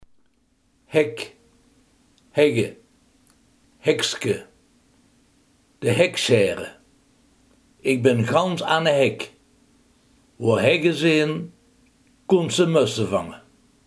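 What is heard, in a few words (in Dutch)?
haag, Limburgse gesproken woordenboeken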